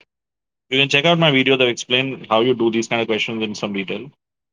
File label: voice message